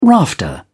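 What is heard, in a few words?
"rafter", British English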